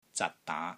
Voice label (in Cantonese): "ZAT6 DAA2", Wing Chun; Jerking Strike; Wing Chun Elements Glossary